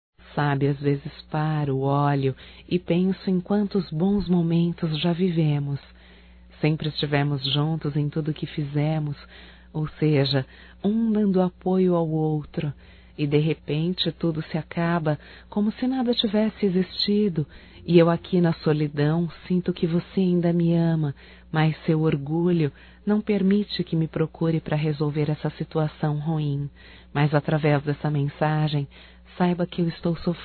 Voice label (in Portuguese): RECONCILIAÇÃO (F), Telemensagens Românticas fem